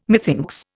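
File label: "methinks", English, Indian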